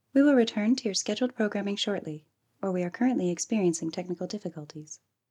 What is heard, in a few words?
Female Voice Tv Broadcast Interruption